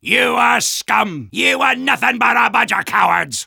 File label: Soldier taunts; You are scum! You are nothin' but a buncha' cowards!